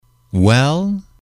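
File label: "well", male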